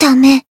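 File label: Voice damaged Japanese; nagi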